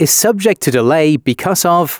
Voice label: announcements male